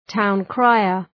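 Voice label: "town crier", English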